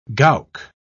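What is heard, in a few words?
"gowk", English, British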